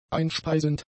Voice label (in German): "einspeisend", german; adjectives